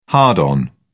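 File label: "hard-on", British English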